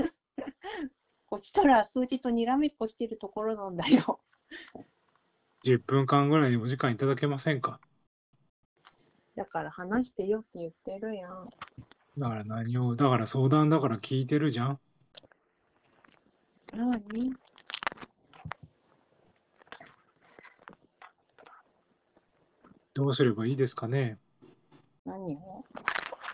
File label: japanese speech dataset